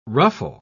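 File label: "ruffle", English word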